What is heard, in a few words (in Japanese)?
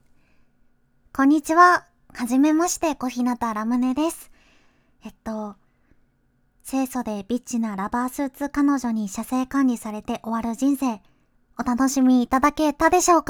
主演声優によるフリートーク